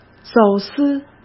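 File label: "走私", Mandarin Chinese; hsk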